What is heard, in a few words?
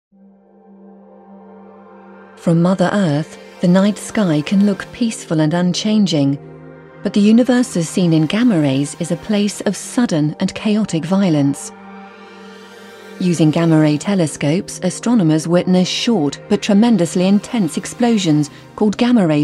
narration